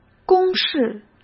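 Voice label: "公式", Mandarin Chinese; chinese flashcards; hsk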